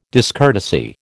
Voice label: "discourtesy", American

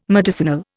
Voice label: "medicinal", Indian

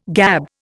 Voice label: "gab", Indian

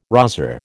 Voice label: "rozzer", American

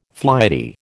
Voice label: "flighty", American

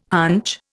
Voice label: "hunch", Indian

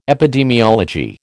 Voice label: "epidemiology", American

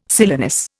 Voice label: "silenus", Indian

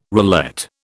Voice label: "roulette", British

